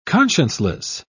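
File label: "conscienceless", British English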